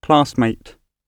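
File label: "classmate" spoken, British English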